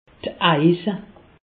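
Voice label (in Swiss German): "eise", Alsatian; medecine; abcès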